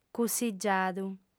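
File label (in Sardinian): "cussigiadu", female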